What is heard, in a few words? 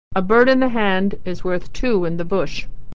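"bird in hand", American English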